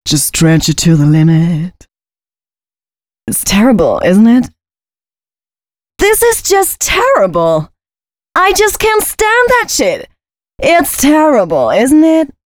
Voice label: female #136, spoken hooks; Mutekki Media; Dance Ultra Voice VOL-1